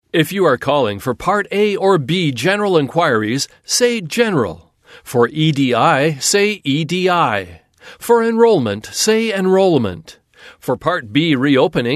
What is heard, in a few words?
IVR; Noridian Health